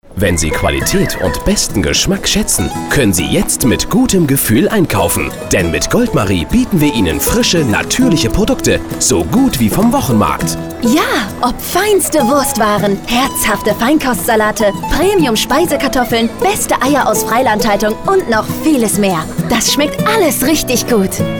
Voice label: Radio; GOLDMARIE POS